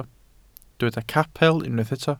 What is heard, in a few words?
"capel", Welsh